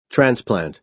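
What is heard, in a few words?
"transplant", American English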